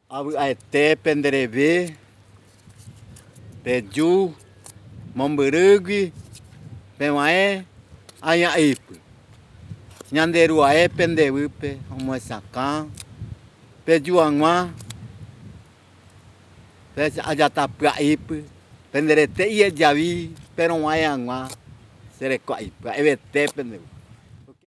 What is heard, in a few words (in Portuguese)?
Fala em Guarani